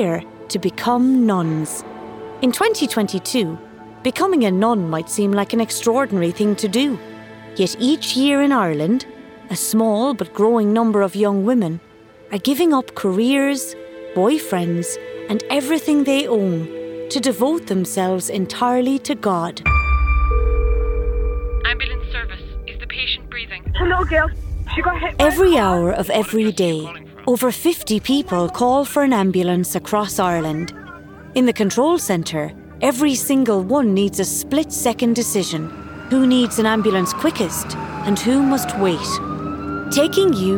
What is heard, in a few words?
Documentary